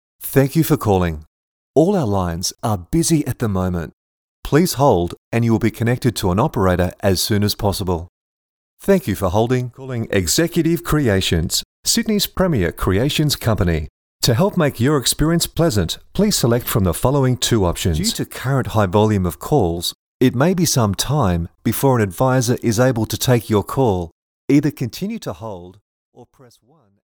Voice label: phone IVR

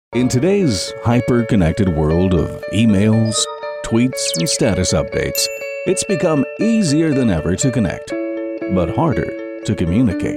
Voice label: Commercial VO

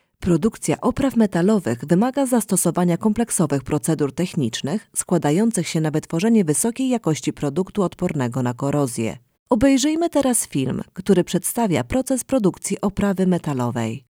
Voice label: Elerning

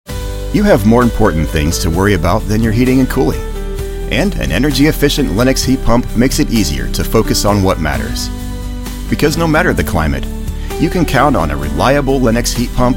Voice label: Radio Spot, Warm; Radio Commercials; Lennox Tv/Radio Spot

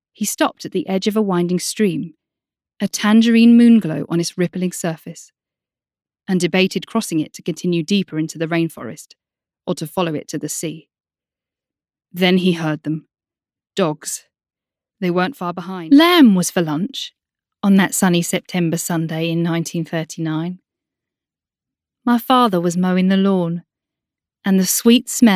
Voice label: Drama Narration

